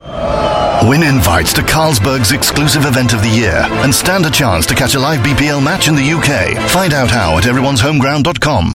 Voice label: UK English; Carlsberg